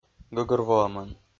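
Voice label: "гӧгӧрвоӧмӧн", Komi-Zyrian, male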